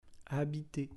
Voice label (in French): "habiter", French